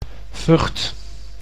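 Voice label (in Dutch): "Vught", Dutch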